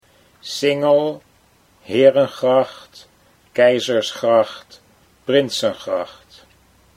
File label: "Singel Herengracht", Dutch